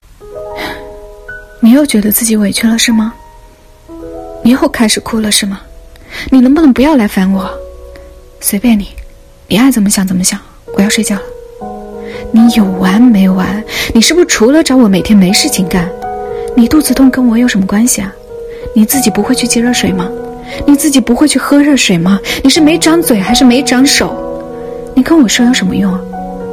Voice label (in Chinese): 念白